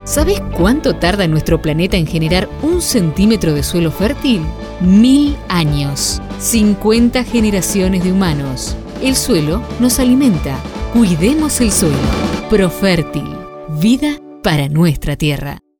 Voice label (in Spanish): Spot RADIO; Profertil; 1 centimentro de suelo